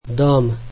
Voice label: slovak house

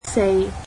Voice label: "four", Cantonese

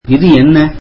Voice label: tamil What is this

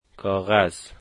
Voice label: "paper", Dari

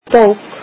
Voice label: "book", Icelandic

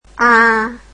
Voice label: vietnamese alphabet aa

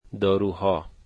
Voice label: medicines, dari

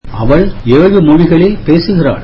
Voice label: tamil she speaks seven languages

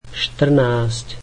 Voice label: slovak fourteen

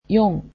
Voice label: "four", japanese

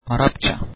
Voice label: turkish Arabic

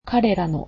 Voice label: "their", Japanese

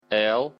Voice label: belarusian alphabet l

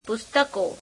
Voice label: gujarati, books

